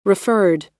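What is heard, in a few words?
"referred", US English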